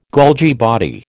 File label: "Golgi body", American